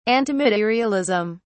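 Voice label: "antimaterialism", British English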